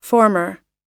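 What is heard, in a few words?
"former", English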